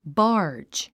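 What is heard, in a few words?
"barge", English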